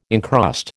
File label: "encrust", American